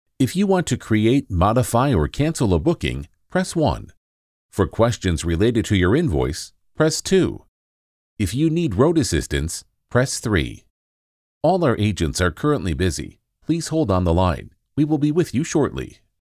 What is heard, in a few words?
phone system